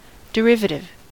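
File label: "derivative", American English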